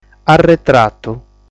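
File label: "Arretratu", Sardinian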